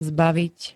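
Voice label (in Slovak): "zbaviť", Slovak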